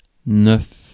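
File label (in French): "neuf", French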